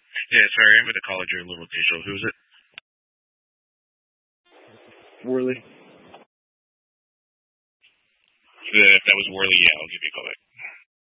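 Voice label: Sheriff Dispatch, Scanner audio recording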